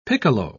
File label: "piccolo", pronounce